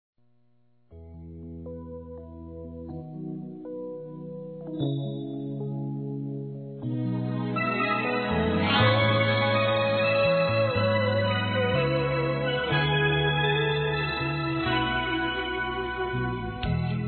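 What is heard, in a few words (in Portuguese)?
Evangelico Masculino; Aniversários; ANIVERSARIO romantico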